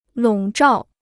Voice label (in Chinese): "笼罩", Chinese